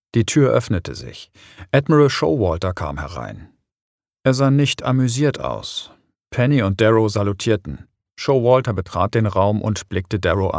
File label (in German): beispiel hoerbuch